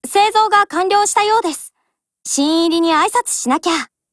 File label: BUILD OVER JP; MP40